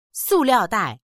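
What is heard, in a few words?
"su4liao4dai4", Mandarin Chinese; sùliàodài; 塑料袋; ビニール袋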